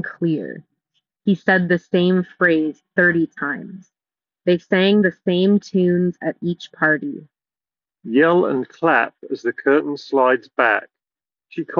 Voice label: Street microphone sample; Nothing Ear A